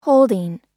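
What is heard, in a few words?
"holding", American English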